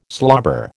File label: "slobber", American